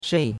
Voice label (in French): "shei4", chinois; Cours-de-Chinois